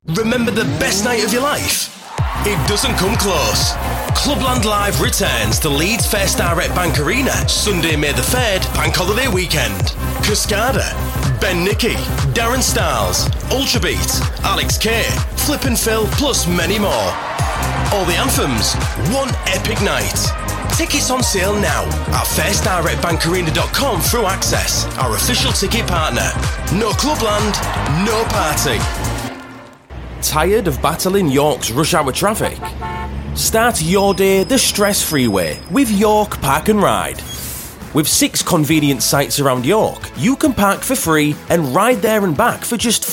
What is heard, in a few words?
yorkshire continuity radio